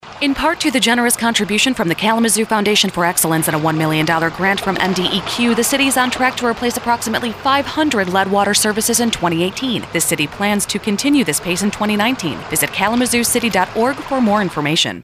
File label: radio ad; lead and copper; city of kalamazoo